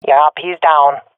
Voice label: i killed enemy, swat fem; vo; Counter Strike Model Pack; CS:GO

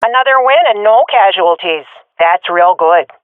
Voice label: round won no casualities, swat fem; vo; Counter Strike Model Pack; CS:GO

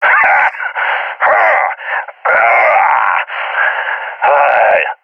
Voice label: taunt, balkan; balkan epic

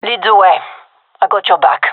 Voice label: following friend, fem; vo

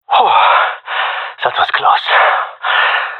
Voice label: close call, gendarmerie male; vo

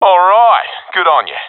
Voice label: cheer; Counter Strike Model Pack; CS:GO

